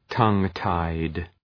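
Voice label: "tongue-tied", English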